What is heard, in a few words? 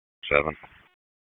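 Police Dispatch; wake; p25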